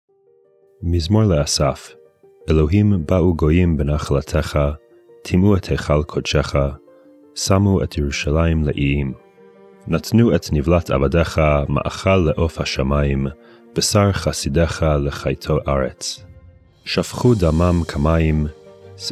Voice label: psalm reading hebrew; Meir Soloveichik